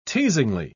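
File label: "teasingly", British English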